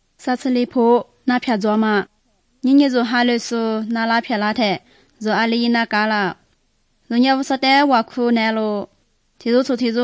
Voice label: good news lisu southern, drama